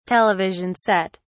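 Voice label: "television set", British English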